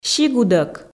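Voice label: "сигудӧк", Komi, female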